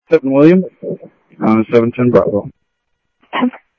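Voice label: Sheriff Lake Patrol, scanner audio trunk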